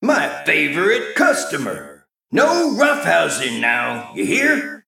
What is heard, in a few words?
Male Mech New Game